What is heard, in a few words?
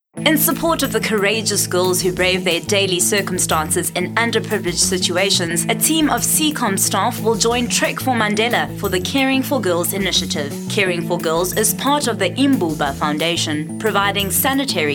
PROMO, voice over; SEACOM